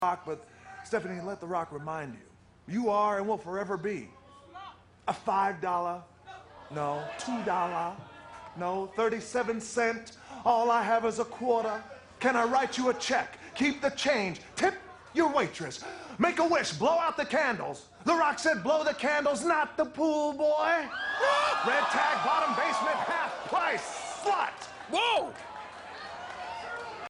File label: promo; rock promo on steph